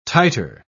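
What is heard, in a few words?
"titre", British English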